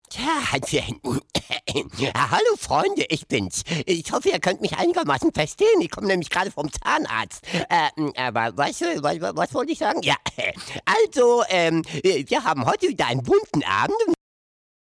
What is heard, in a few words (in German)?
trickstimme